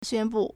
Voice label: "xuan1bu4", Chinese